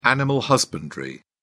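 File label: "animal husbandry", British English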